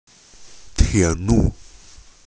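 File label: "tie3 lu4", Mandarin Chinese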